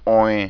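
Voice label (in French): "onin", French; õĩ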